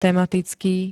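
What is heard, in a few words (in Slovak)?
"tematický", Slovak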